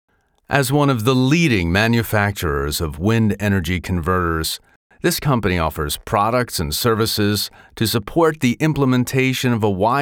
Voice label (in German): industrie film